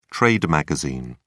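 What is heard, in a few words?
"trade magazine", British English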